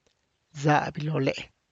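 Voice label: hanging on the fence, Tlacochahuaya Zapotec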